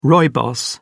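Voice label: "rooibos", English, British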